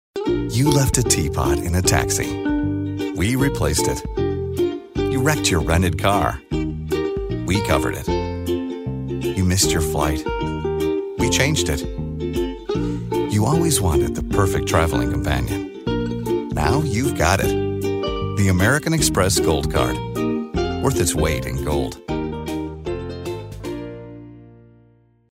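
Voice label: English US, male, commercial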